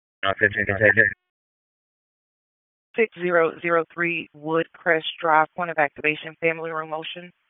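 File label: Wake Sheriff's Office Dispatch, p25; WSO DISP 1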